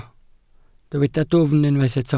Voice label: "dwfn", Welsh